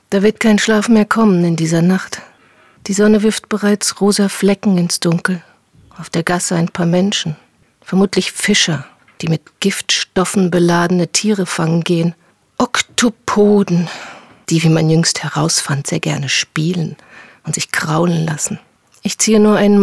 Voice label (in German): Hoerbuch; Schlaflos